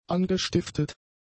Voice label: "angestiftet", German; adjectives